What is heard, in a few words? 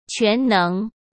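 "全能", Mandarin Chinese; hsk; chinese-flashcards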